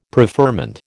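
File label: "preferment", American English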